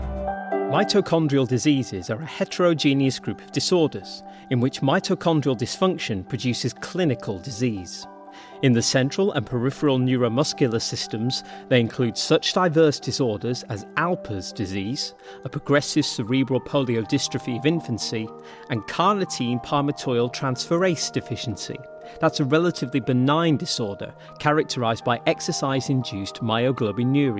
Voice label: medical Learning